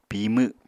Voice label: "pimw", Apatani

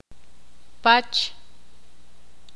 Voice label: "Rancho", Ixil

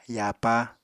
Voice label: "yapa", Apatani

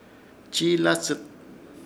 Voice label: Ishir Chamacoco acostarse de lado; Chiilazɨt

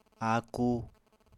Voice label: "aku", apatani

